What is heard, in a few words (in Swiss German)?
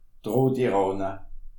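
"rotrahne", Alsatian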